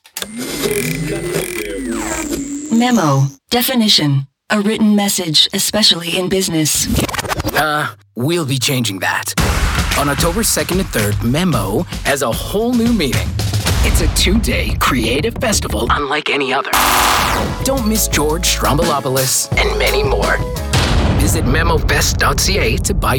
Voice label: Commercial